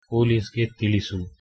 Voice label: police, Kannada